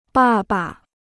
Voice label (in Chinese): "爸爸", Chinese